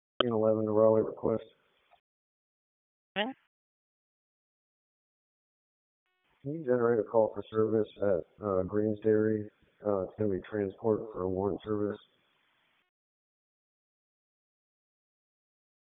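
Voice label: Police District Dispatch; Raleigh Police Northeast District Disp; wake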